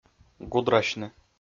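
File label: "гудрасьны", Komi-Zyrian, male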